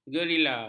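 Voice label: "gerila", Malay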